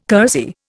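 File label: "kersey", Indian English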